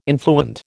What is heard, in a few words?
"influent", American